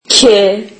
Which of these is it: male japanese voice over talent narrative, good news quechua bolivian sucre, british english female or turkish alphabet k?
turkish alphabet k